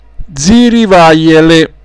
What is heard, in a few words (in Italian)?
"zirivajele", dialetto; zirivajele impicci